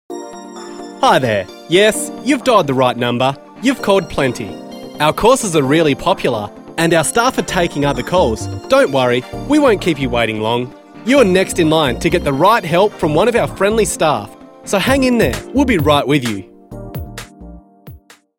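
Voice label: Australia, male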